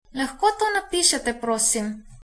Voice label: write, slovenian